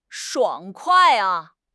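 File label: "爽快", Mandarin Chinese; HSK6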